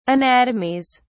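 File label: "anatomies", English, British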